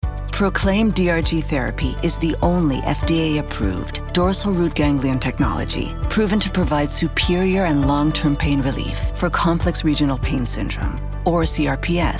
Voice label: Medical Technical English